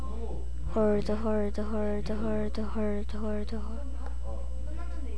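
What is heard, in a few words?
"hold", spoken word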